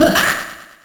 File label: "hah" hah!